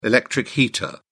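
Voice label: "electric heater", British English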